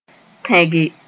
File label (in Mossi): "tẽge", Moore